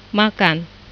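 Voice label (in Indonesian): "makan", Indonesian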